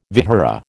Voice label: "vihara", American